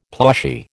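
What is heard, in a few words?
"plushy", American English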